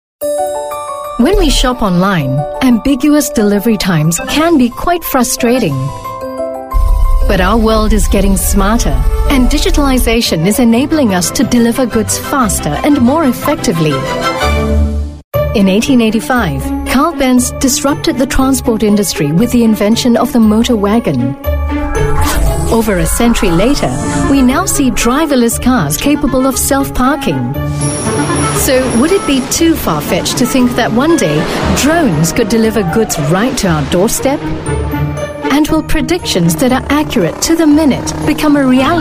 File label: Asian English Corporate Shipping the Future; MPA Maritimus